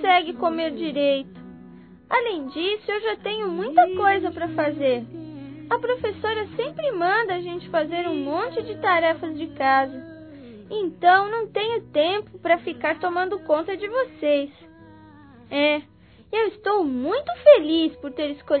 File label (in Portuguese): ANIV. CASAMENTO PAIS, Infantil masc; Telemensagens 2017